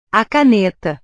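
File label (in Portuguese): "a caneta", Portuguese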